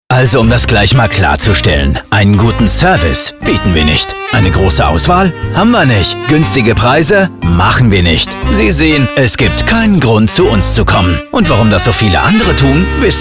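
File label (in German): Radiospot Beispiele Technik & Elektronik; Hard End Software Image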